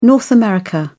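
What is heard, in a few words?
"north america", British English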